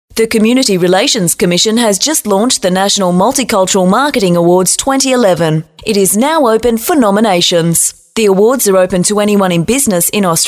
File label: female talent corporate